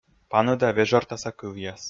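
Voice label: "паныда вежӧртаса кывъяс", Komi, male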